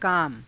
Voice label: "kaama", Hindi

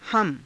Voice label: "hama", Hindi; हम; [代]私たち

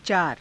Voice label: "caara", Hindi

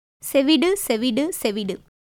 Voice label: Tamil; சேவிடு; ceviṭu; [sevɯɖɯ]; deaf; 聾

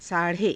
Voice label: "saa rhe", hindi

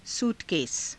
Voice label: "suu takesa", Hindi; सूटकेस; スーツケース; [男]スーツケース

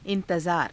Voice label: "i^tazaara", Hindi

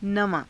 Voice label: "navaa~", Hindi

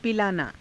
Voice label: "pilaanaa", Hindi

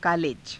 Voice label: "kaaleja", Hindi

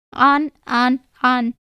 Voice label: Tamil

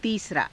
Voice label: "tiisaraa", Hindi; तीसरा; [形]３番目の

